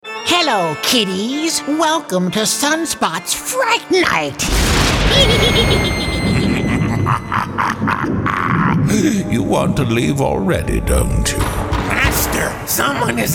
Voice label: halloween voice talent

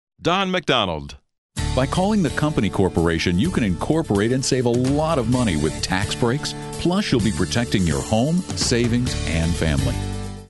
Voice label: retail announcer

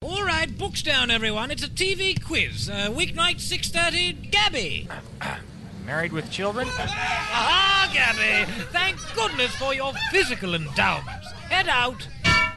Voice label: British

